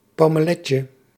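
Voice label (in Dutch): "paumelletje", Dutch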